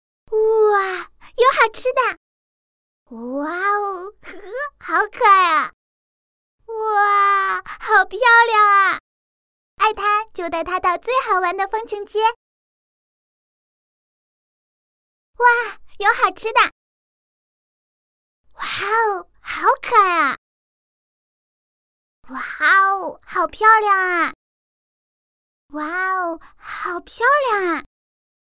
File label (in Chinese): 女 女童声 广告 风情街